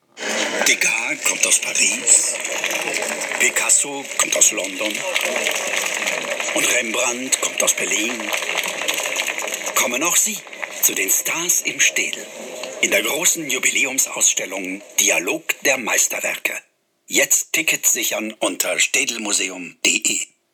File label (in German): funk spot; staedel